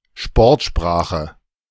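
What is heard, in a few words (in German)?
"Sportsprache", German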